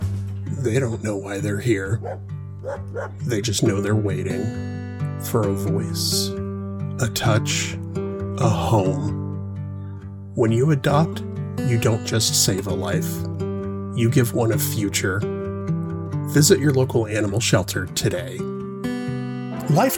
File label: Commercial VO Animal Shelter; home studio; voice artist in the Phoenix AZ area